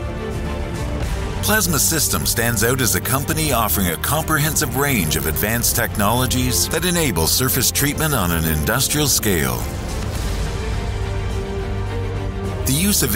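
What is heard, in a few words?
male, Canadian English, narration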